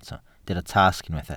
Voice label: "tasg", Welsh